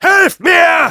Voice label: Demoman help me, de